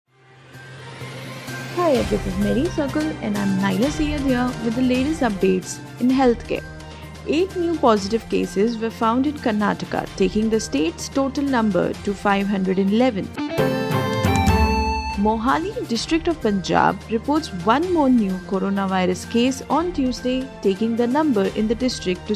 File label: english news